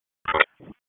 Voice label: EMS ALERT; wake